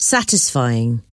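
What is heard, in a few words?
"satisfying", English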